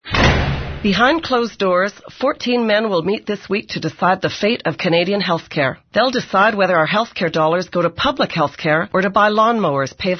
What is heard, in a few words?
radio ad